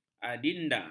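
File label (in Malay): "adinda", Malay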